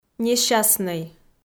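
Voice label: "несчастнӧй", Komi, female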